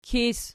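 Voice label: "kis" nominative, Archi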